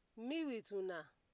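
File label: "mewituna", Nigerian Pidgin, female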